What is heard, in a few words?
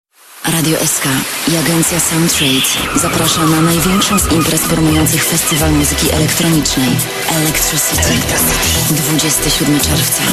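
voice female jingle lead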